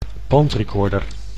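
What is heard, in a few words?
"bandrecorder", Dutch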